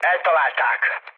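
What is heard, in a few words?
Hungarian aircraft destroyed mood low, speech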